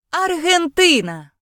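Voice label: "argentina", Ukrainian; gcompris; geography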